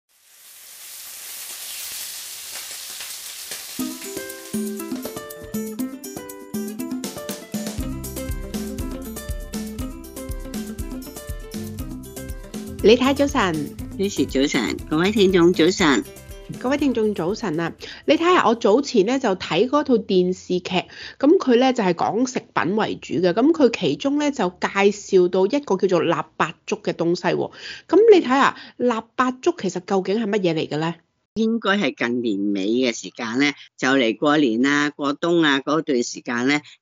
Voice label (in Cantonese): cantonese; 【甜丝丝】腊八粥; 美食速递; gourmet express; SBS Chinese